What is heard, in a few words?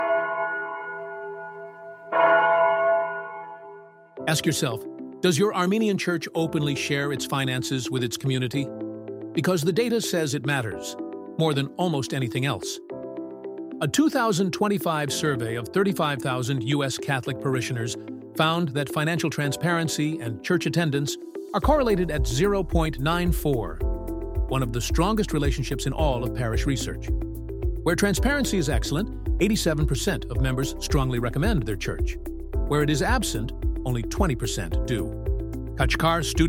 60sec Commercial